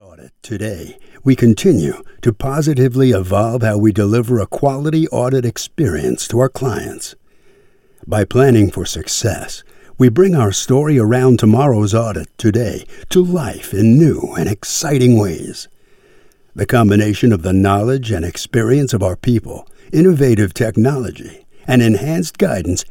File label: American Male